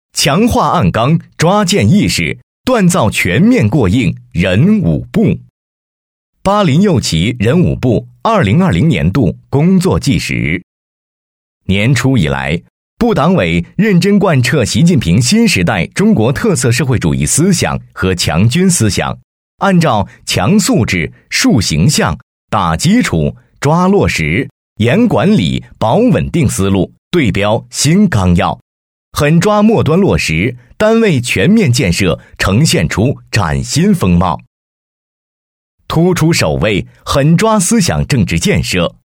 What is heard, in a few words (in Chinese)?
工作 纪实